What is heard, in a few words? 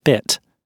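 "bit", British English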